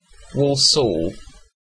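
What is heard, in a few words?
"Walsall", British English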